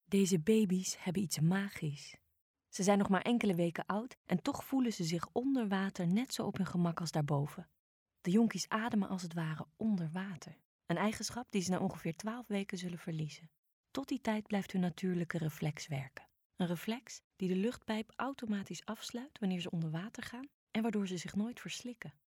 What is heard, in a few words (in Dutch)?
documentaire